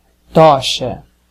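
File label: "torch", Brazilian Portuguese; gcompris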